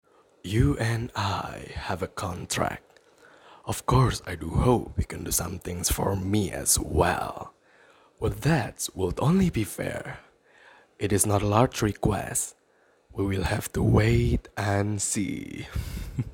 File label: English character